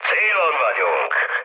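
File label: Hungarian near waypoint mood med, speech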